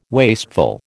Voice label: "wasteful", American